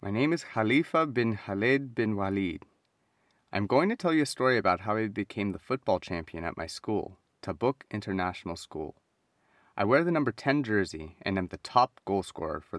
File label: Reading